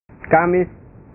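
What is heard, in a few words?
"kamis", Indonesian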